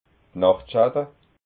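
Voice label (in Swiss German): "nachtschatta", Alsatian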